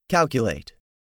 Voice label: "CALCULATE", english; 3000 words